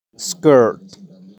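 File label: "Skirt", pronunciation; clothes; Ropa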